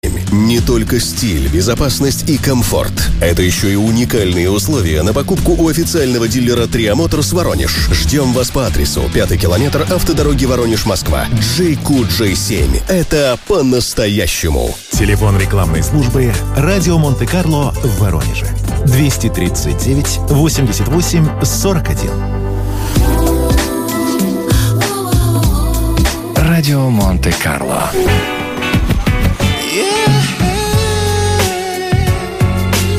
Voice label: Mestnaya reklama; Radio Monte Carlo Voronezh 107.2 FM